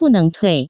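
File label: bu neng tui, Taiwan Chinese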